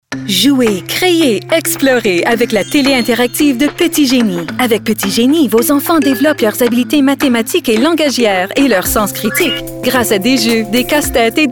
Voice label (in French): French Female